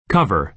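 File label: "cover", American English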